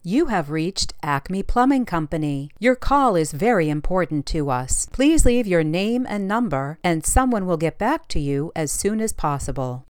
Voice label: Voicemail